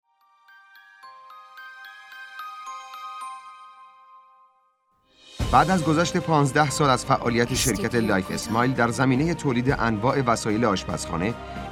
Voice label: commercial